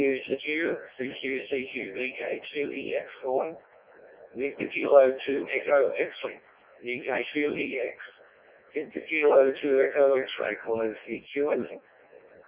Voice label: decoded speech, parrot